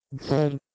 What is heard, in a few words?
"gherh1" PIE